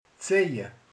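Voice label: "húz", Hungarian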